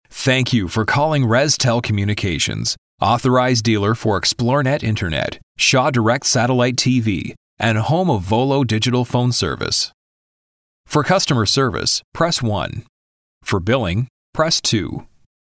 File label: Phone Menu; Reztel